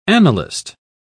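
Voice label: "annalist", British English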